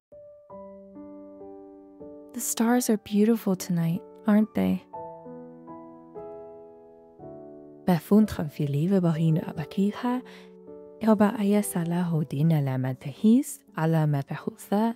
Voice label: multilingual dubbing